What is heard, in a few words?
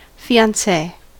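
"fiancee", US English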